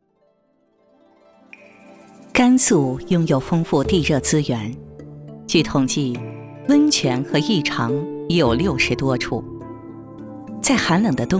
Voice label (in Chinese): 女 宣传片; 甘肃温泉